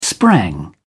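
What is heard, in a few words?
"sprang", British English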